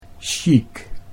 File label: "sjiek", Dutch; chique (sjiek); 'chique, classy'